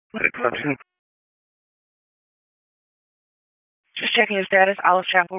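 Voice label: EMS Dispatch, p25; wake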